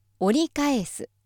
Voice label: female